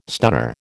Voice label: "stunner", American

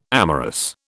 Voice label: "amorous", British

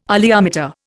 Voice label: "oleometer", Indian

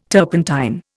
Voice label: "turpentine", Indian

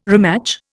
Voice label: "rematch", Indian

